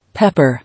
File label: "Pepper", English